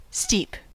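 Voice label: "steep", US English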